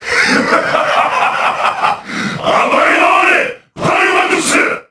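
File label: Vox Skill, voices, Japanese; Lusikiel; kings raid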